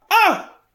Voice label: guard female; SyrinScape; Waterdeep